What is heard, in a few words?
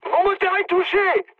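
damaged engine, mood high, French; War Thunder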